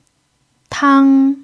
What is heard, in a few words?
"tang1", pinyin